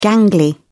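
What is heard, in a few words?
"gangly", English, British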